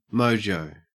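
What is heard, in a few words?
"mojo", Australian English; pronunciations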